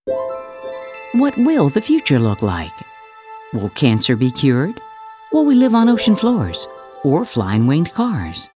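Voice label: anti announcer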